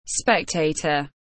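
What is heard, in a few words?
"spectator", English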